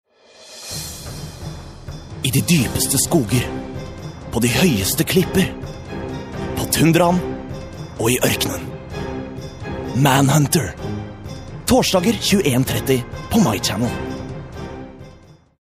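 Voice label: norwegian male narration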